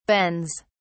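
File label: "bens", English, British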